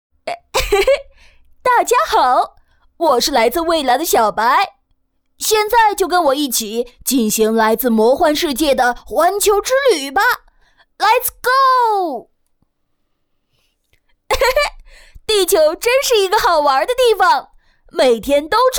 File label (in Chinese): 女 男童, 国语女声配音; 小白熊